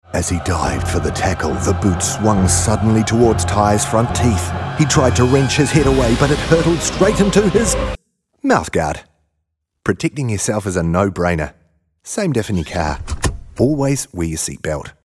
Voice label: seatbelt radio; teeth